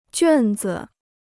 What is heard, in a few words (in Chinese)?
"卷子", Chinese